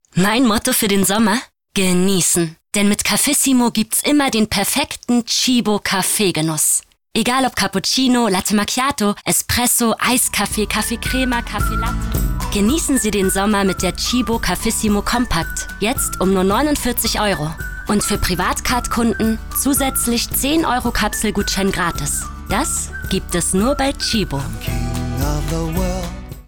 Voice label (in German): Dynamisch